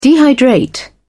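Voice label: "dehydrate", British English, dictionary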